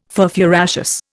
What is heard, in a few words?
"furfuraceous", Indian